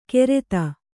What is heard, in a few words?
"ಕೆರೆತ", Kannada